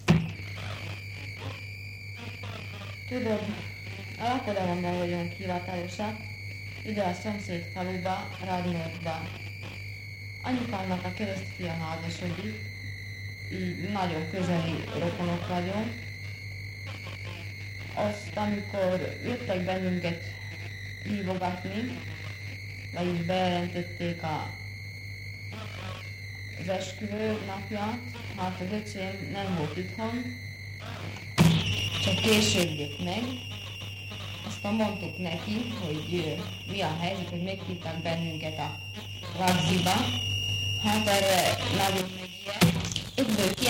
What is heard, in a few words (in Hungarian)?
nyelvjaras gyujtesek